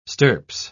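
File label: "stirps", English, British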